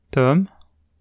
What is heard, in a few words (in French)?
"Tom", French